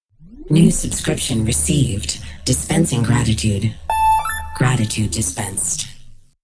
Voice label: new subscription dispensing gratitude female normal personality